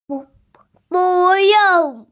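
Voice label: "bu4yong4", Mandarin Chinese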